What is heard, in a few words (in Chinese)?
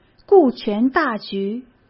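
"顾全大局" Mandarin; hsk; chinese-flashcards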